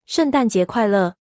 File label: christmas, mandarin